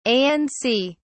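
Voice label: "anc", British English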